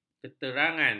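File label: "keterangan", Malay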